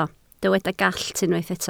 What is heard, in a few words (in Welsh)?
"gallt", Welsh